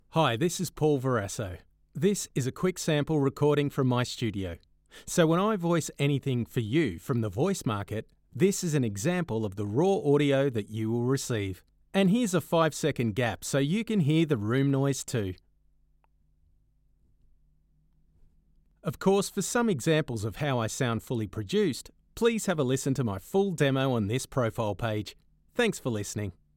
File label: Studio Sound Check